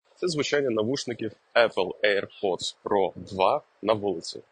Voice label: apple airpods pro 2 ua street

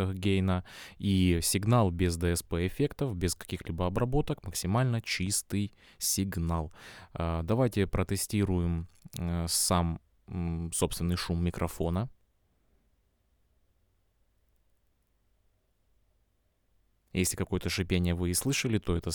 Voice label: lewitt 240 pro voice

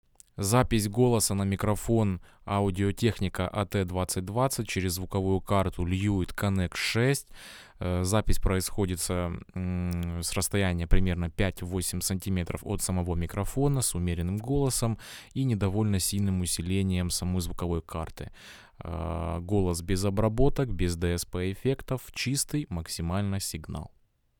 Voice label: Audio-Technica AT 2020 voice

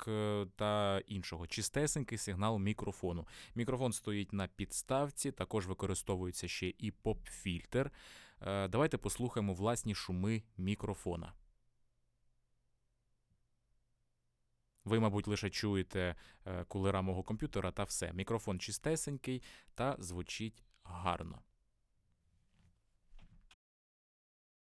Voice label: audio technica at2020

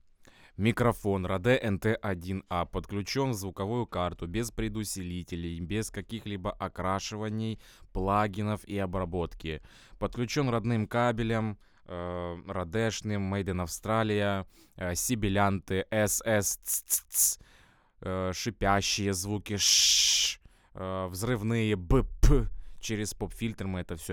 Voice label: rode nt1 a

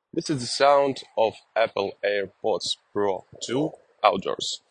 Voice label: apple airpods pro 2 en street

